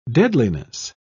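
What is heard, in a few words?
"deadliness", en, British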